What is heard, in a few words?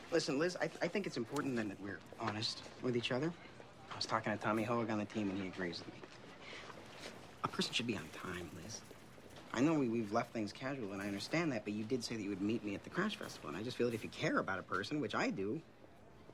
english